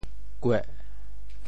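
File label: "kueh4", Teochew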